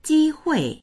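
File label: "ji1hui4", Chinese; jīhuì; 机会; 機会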